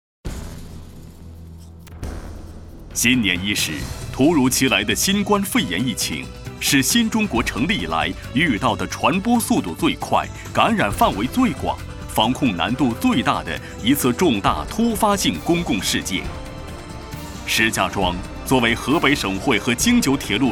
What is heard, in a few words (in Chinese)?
男, 汇报; 公安局